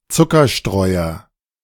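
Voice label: "Zuckerstreuer", German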